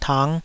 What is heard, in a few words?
"tang5", Taiwanese